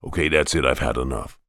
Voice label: human voice character american male 40s says ok thats it ive had enough